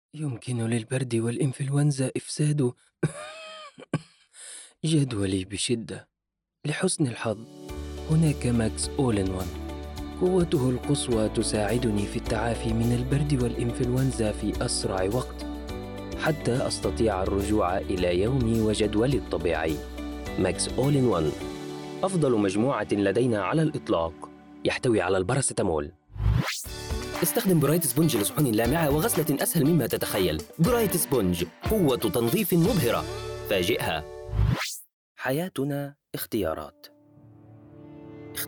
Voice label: Arabic Commercial